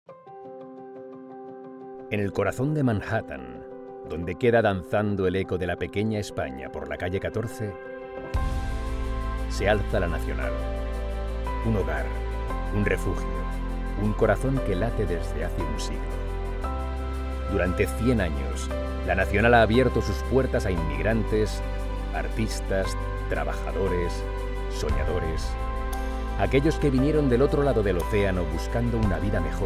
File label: spanish corporate videos